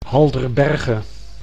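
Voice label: "Halderberge", Dutch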